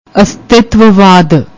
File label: "astitav wad", Punjabi; ਅਸਤਿਤਵਵਾਦ